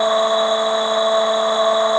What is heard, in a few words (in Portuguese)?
Intenso, Ancoras Sintetizadas